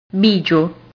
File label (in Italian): "bigio", italian